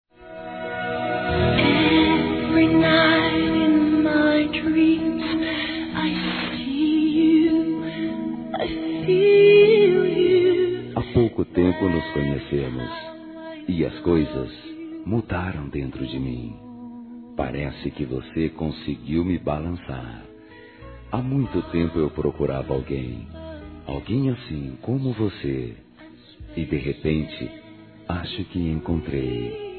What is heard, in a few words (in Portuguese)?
ROMANTICA SUAVE M, Telemensagens Românticas